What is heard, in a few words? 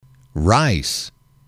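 "rice", English, male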